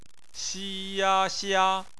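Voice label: "xia", Mandarin Chinese; phonetics; bpmf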